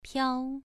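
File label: "piao", tone 2, pronunciation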